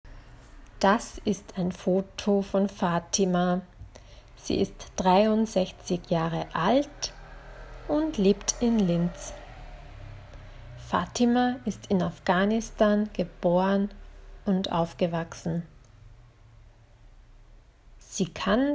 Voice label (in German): langsameres Sprechtempo